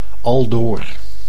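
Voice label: "aldoor", Dutch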